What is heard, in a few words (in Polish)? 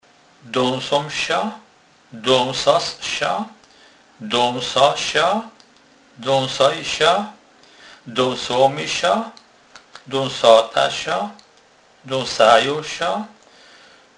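"dasac", Kurpie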